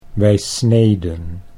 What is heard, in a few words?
"wij sneden", Dutch